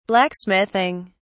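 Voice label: "blacksmithing", British English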